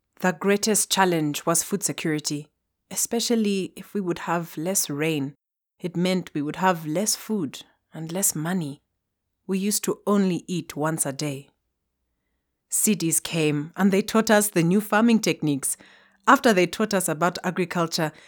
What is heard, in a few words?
English East African Accent Conversational